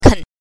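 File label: "ken", Mandarin Chinese pinyin, neutral tone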